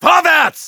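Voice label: battlecry, German; Vorwärts!; Soldier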